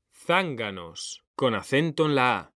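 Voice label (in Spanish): "ZÁNGANOS", Spanish, male; mekanta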